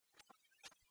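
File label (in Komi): кутшӧм рӧма шондіыс, Komi, female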